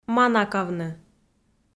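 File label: "манакавны", Komi, female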